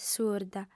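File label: "surda", Sardinian, female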